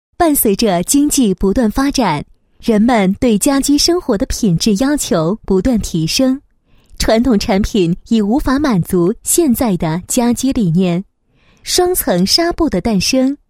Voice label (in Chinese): 女 纺织品牌宣传; 喜寐2017春夏产品亚麻